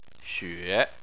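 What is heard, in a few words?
"xue", Chinese, third tone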